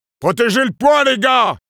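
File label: Sniper fight on cap, French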